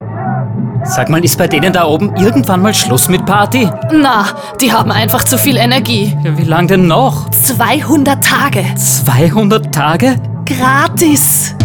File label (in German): Hörfunkspot; Wien Energie; Hörfunkspot "Wien Energie", 2021